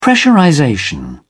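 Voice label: "pressurization", British English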